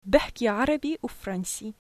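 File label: "i speak arabic and french", Syrian Arabic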